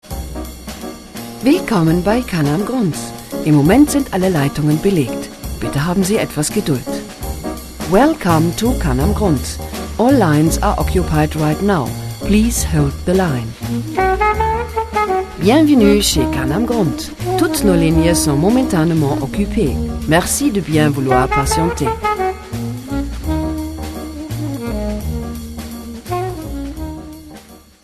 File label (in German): ansage bei besetzt; kanam